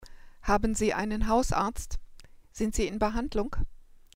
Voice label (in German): "hausarztbehandlung", german